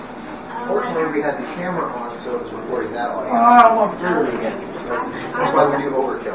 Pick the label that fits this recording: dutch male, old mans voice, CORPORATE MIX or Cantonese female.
old mans voice